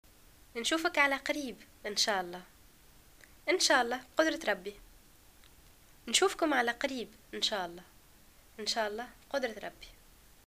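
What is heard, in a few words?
greeting farewell, Tunisian Arabic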